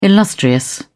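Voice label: "illustrious", British English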